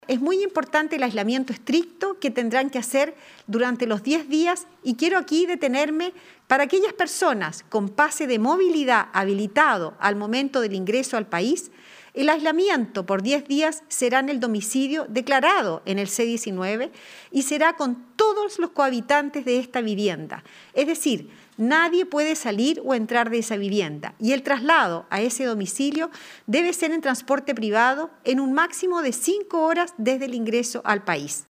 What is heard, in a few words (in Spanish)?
cuña